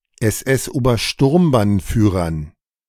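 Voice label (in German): "SS-Obersturmbannführern", German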